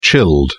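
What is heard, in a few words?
"chilled", British English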